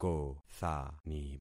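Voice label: "kothani", concatenated syllables; Affixing experiment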